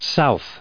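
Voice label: "South", US English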